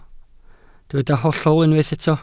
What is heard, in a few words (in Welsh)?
"hollol" Welsh